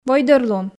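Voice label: "войдӧрлун", Komi, female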